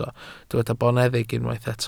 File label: "boneddig", welsh